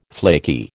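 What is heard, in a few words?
"flaky", American English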